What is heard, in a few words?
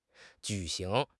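"ju3 xing2", Mandarin Chinese; HSK4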